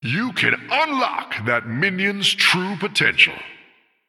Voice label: Male Human Triple